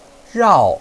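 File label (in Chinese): "rao", Chinese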